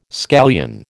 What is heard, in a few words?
"scallion", American